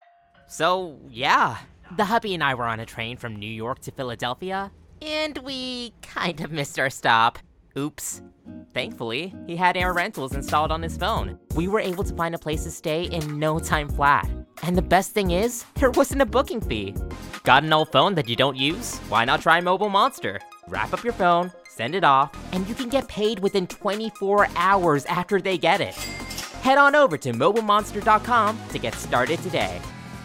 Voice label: Commercial